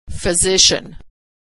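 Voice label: "physician", American English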